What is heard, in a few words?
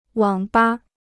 "网吧", Chinese